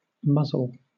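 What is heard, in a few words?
"muzzle", British English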